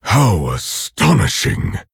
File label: Orc Emote